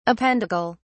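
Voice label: "appendical", British English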